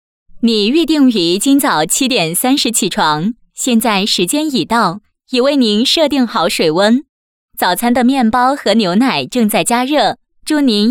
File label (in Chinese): 女, 语音提示配音